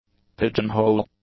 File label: "pigeon-hole", English; nouns